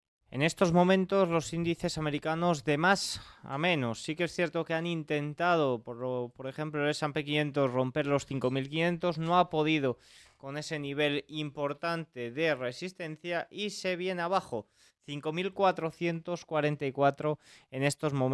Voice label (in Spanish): radio; noticias bolsa